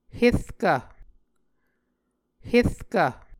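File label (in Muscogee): "herkv", Muscogee word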